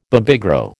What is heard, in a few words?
"Babygro", American